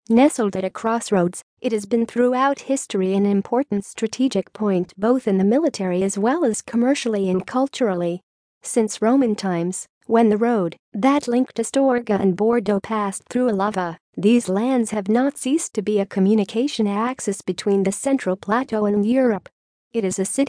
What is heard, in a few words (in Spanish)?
inglés